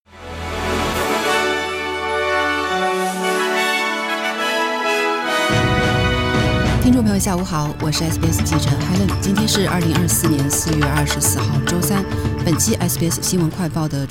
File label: mandarin newsflash; sbs